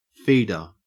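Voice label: "feeder", Australian English; pronunciations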